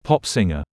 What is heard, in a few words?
"pop singer"